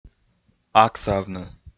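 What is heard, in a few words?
"агсавны", Komi, male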